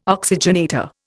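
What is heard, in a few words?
"oxygenator", Indian English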